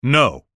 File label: "No", taunts; Microsoft Studios